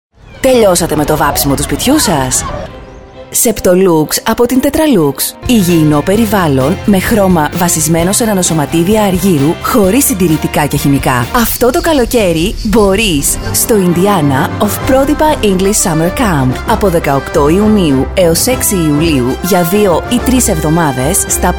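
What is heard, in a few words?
Greek, female, commercial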